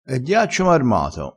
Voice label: "diaccio marmato", crespinese

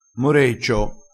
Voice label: "moreccio", crespinese, vocabolario dialettale

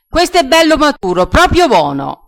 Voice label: "poponcino", crespinese

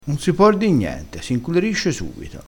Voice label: "inculerissi", crespinese dialettale

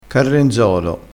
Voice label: "carrenzolo", crespinese

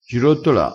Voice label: "girottola", crespinese

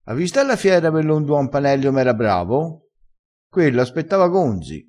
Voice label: "gonzo", crespinese, vocabolario dialettale

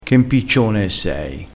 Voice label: "impiccione", crespinese

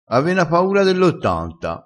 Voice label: "ave na paura", crespinese

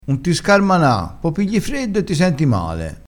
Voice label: "scarmanassi", vocabolario dialettale, crespinese

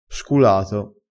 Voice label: "sculato", dialettale, crespinese

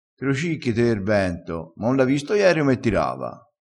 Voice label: "te lo cicchi", crespinese

